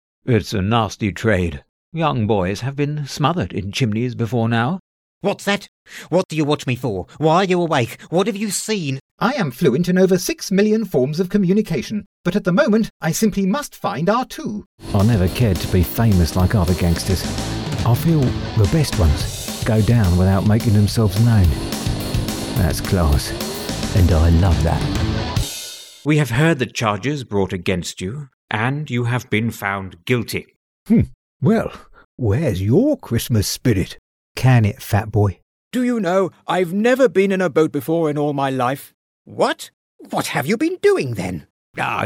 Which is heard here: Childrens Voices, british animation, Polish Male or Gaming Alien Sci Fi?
british animation